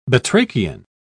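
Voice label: "batrachian", British English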